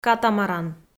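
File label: "катамаран", Komi, female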